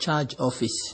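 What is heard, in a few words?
"charge office", Nigerian Pidgin, male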